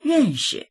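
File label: "ren4shi", Chinese; 认识; （人と）知り合いになる、知り合いである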